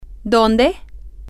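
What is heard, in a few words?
where, Spanish, female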